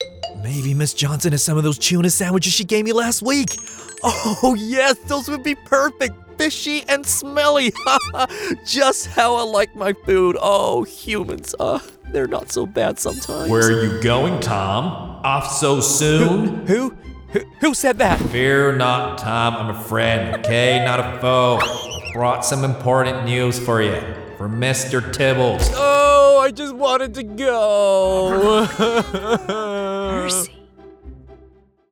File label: Tom Cat Character, en-AU